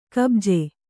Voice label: "ಕುಬುಜ", Kannada

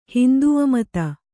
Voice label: "ಹಿಂದೂಮತ", Kannada; hindū mata; noun; = ಹಿಂದೂಧರ್ಮ.

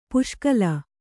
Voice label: "ಪುಸುಕಲು", Kannada

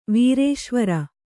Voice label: "ವೀರೇಶ್ವರ", Kannada

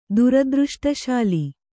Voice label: "ದುರದೃಷ್ಟಶಾಲಿ", Kannada

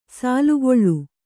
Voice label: "ಸಾಲುಗೊಳ್ಳು", kannada

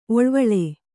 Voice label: "ಒಳವಾಲ", Kannada

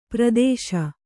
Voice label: "ಪರದೇಶಿ", Kannada

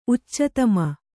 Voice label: "ಉಚ್ಚತಮ", Kannada

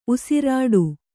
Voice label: "ಉಸಿರಿಡು", Kannada

